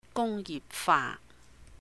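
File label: "Gung Yihp Fa", Cantonese; industrialization; 工業化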